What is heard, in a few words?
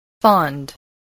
"fond", English voice, spelling